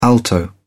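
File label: "alto", British English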